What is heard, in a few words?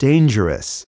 "dangerous", spanish